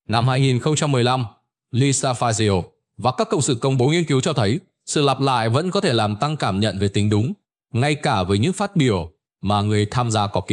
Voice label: narration